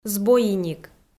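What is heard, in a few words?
"збойиник", Komi, female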